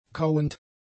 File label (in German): "kauend", German; adjectives